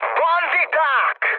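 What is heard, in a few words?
Hungarian enemy detected mood high, speech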